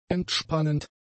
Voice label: "entspannend", German; adjectives